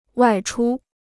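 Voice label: "外出", Chinese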